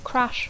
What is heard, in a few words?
"crash", English